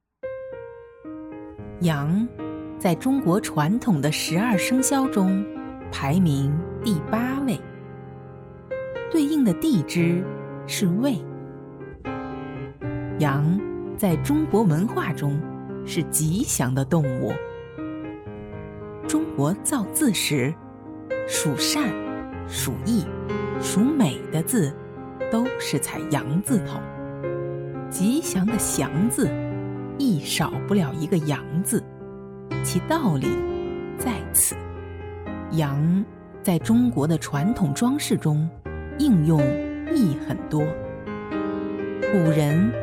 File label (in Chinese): 词典配音